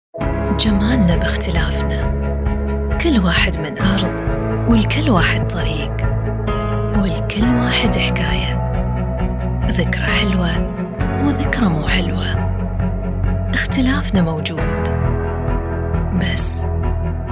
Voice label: Khaleeji Commercial; Showreel

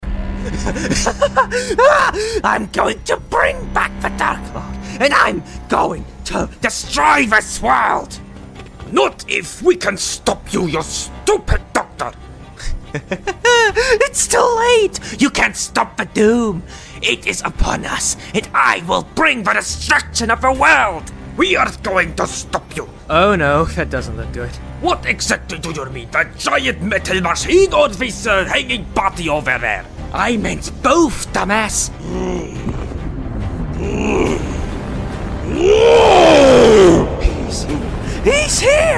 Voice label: Crazy scientist

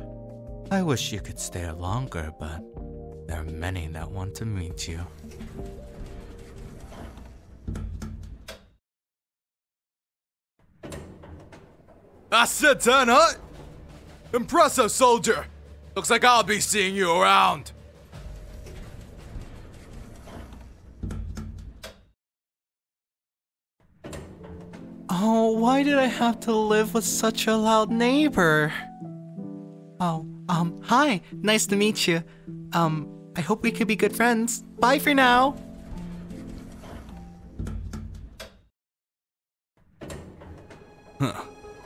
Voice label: Character Demo Reel

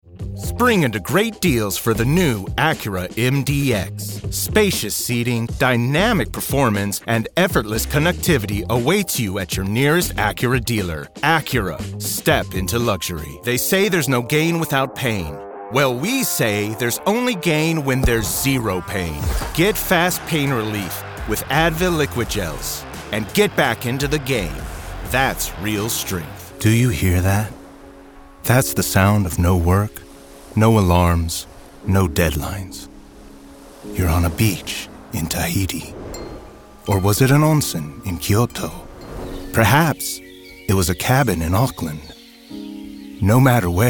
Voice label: Commercial

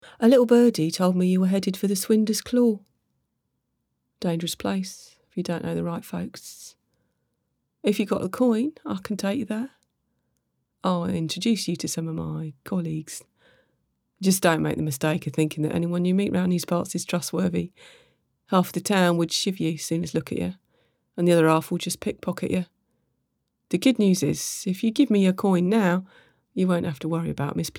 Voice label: thief, shifty type

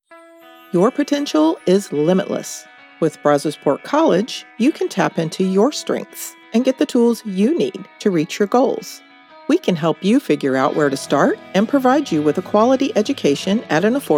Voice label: Female American Voiceover; BC spot from youtube

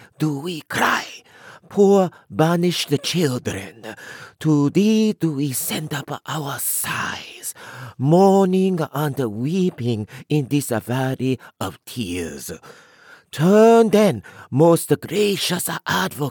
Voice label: Mother of Mercy Prayer Japanese

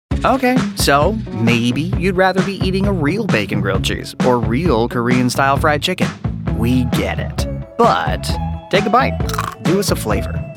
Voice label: Commercial

